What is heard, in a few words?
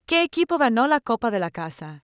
spanish